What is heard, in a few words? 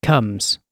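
"comes", British English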